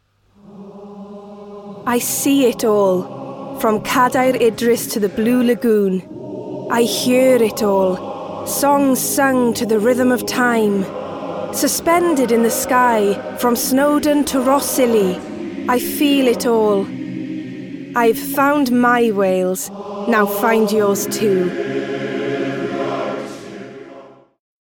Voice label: Ad, Visit Wales

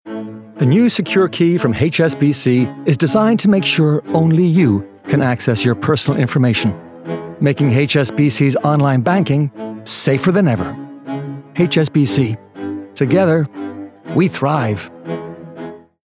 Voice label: Upbeat, Natural; HSBC

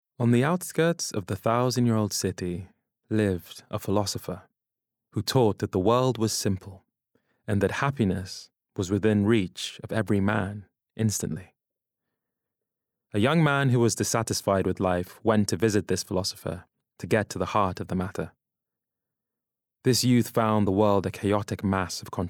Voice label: Audiobook

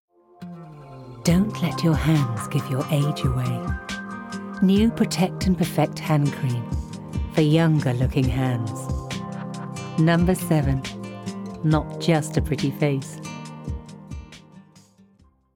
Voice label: Commercial Reel

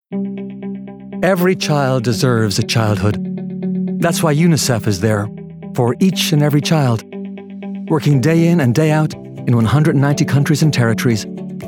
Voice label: Light, Engaging; UNICEF

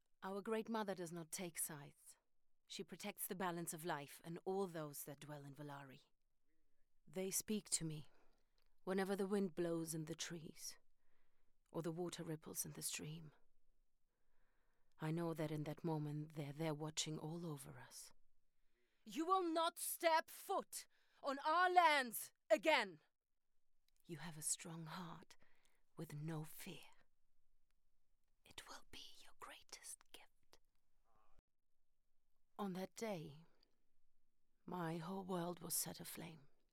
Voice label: Eastern European (neutral) - Gaming

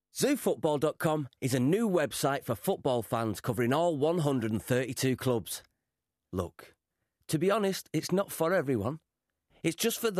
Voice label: Matey, Bold, Genuine; Zoo Football

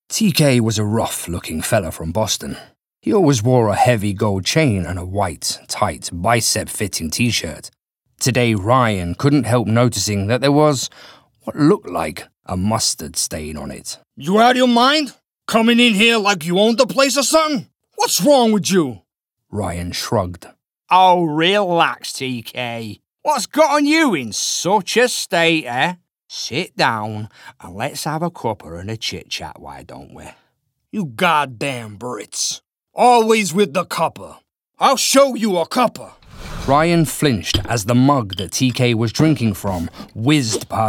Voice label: Narration

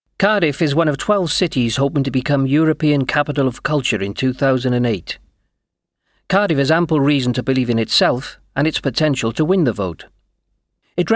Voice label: Documentary; Cardiff

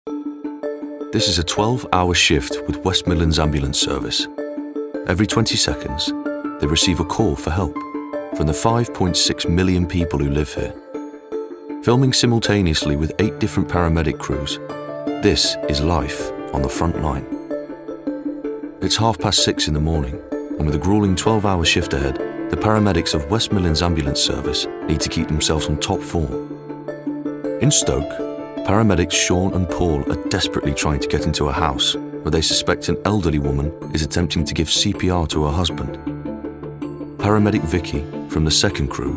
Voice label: NARRATIVE, Documentrary, Neutral; 999 Documentrary

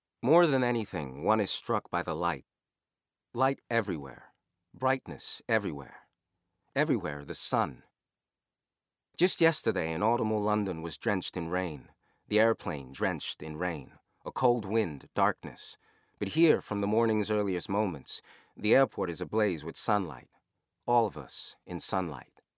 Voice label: Narrative - US accent

